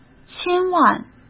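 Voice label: "千万", Mandarin; chinese-flashcards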